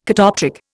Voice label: "catoptric", Indian

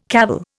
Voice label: "cavil", Indian

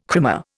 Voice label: "krimmer", Indian

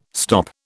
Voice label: "stop", British

